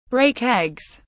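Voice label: "Break eggs", English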